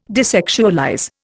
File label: "desexualize", Indian